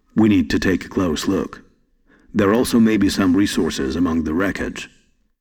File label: Voice Dialog; We Are the Dwarves